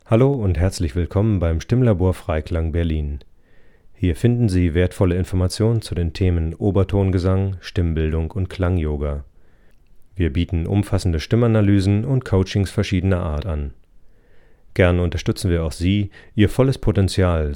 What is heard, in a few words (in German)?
hoerprobe sprechstimme; Stimm-Coach & Oberton-Sänger